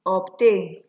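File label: "obtain", Nigerian Pidgin, female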